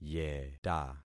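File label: "yeta", concatenated syllables; Affixing experiment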